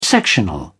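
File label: "sectional", British English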